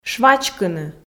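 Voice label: "швачкыны", Komi, female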